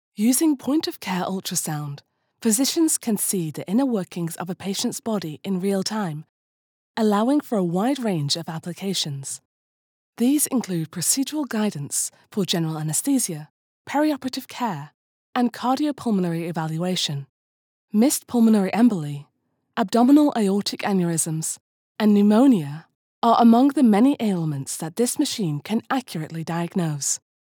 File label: UK Elearning, e-learning; meditation